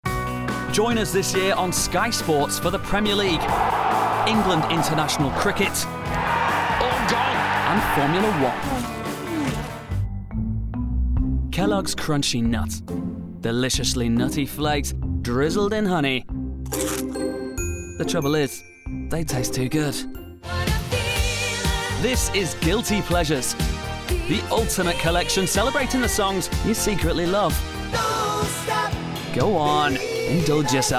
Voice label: Commercials Montage